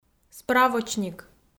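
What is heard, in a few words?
"справочник", Komi-Zyrian, female; audio dict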